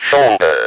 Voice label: "SHOULDER", Speak & Spell